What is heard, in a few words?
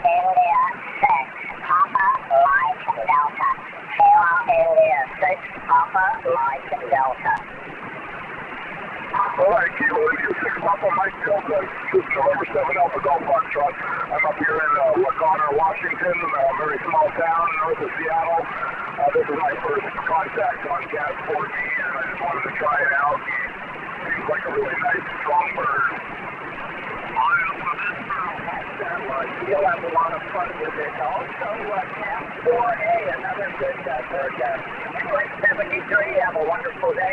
qso; Great Sigs on Cas-4B 1st qso on this bird is manualy tuning for doplar